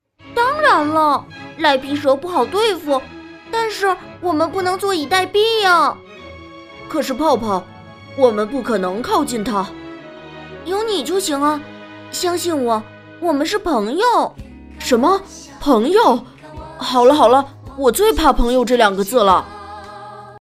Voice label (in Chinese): 动画角色; 小鲤鱼阿酷